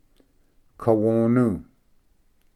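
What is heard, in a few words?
"kawonu", Cherokee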